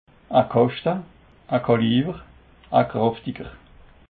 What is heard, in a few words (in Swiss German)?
"kaschte", alsace